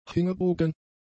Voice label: "hingebogen", German; adjectives

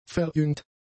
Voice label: "verjüngt", German; adjectives

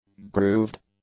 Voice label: "grooved", english; adjectives

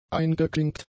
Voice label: "eingeklinkt", German; adjectives

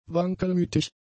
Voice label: "wankelmütig", German; adjectives

